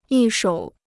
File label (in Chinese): "一手", Chinese